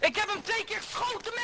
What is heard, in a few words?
angry russian